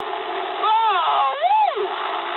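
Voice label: English trick mood med; War Thunder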